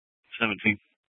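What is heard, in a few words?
police dispatch radio, scanner; Mesa PD Dptmnt; 1 Fiesta Dispatch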